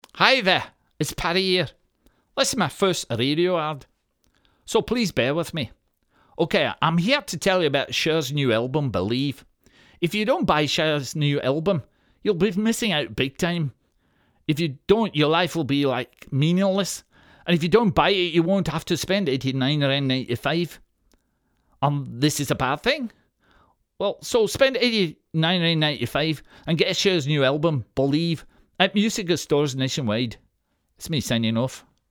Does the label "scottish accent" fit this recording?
Yes